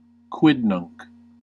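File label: "quidnunc", US English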